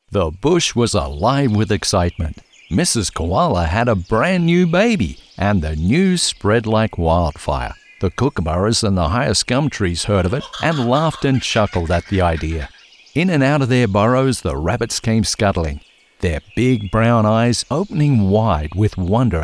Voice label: Childrens Audiobook